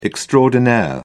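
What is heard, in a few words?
"extraordinaire", British English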